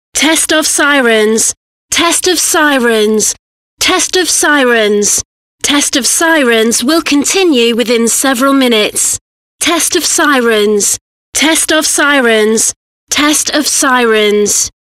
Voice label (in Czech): zkouška sirén před zkouškou en